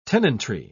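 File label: "tenantry", British English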